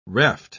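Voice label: "reft", English, uk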